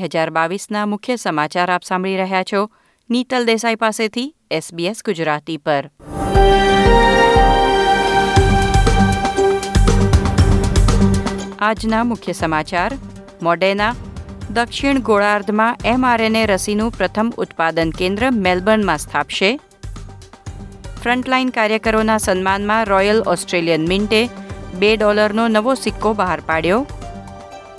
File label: gujarati news bulletin; SBS Gujarati; SBS Gujarati પર સાંભળો ઓસ્ટ્રેલિયાના તાજા અને મહત્વના સમાચાર.